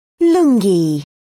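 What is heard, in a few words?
"lungi", British English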